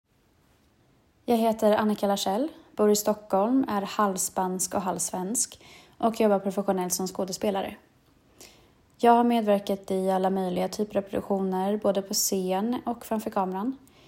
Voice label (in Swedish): Presentation Svenska